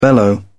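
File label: "bellow", British English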